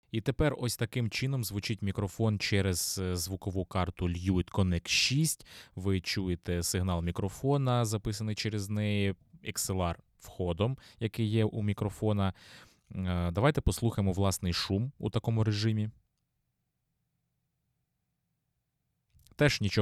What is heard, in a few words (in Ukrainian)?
fifine am8 xlr golos; FiFine AM8 – динамічний мікрофон з можливістю підключення по USB і XLR кабелю; XLR Голос